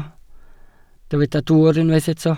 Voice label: "dwwr", Welsh